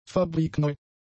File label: "fabrikneu", German; adjectives